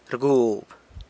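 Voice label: "rgub", San Lucas Quiaviní Zapotec; sucks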